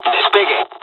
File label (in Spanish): fly, dialogs spanish; War Thunder